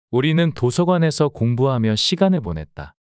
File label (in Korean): 문장 남자; 우리는 도서관에서 공부하며 시간을 보냈다